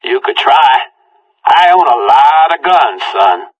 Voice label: conversation; Left 4 Dead 2

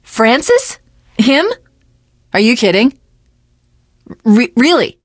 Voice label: finale chat, teengirl, voice; Left 4 Dead 2

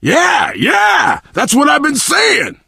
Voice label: initial meeting, survivor voice biker

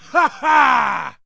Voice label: man victory; Mount&Blade Warband

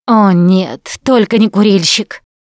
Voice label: heard smoker, russian; Producer

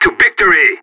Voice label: radio lets go, separatist; player vo

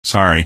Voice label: "sorry", male; npc; Garry's Mod; hl2

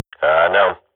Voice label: radio bot reponse negative, Counter-Strike swat

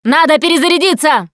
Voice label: reload intense, russian, Survivor Voice Producer

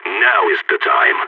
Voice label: radio lock n load, balkan; Counter-Strike Global Offensive

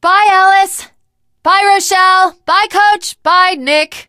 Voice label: teengirl, voice; Left 4 Dead 2; l4d1 finale

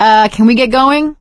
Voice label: move on, survivor voice; Left 4 Dead 2; producer

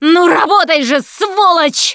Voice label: defibrillator, russian; Producer

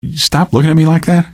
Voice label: question, male; Garry's Mod; hl2

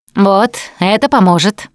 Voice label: pain relief pills, Russian; Voice; Producer

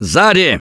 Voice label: watch out behind, russian; Gambler

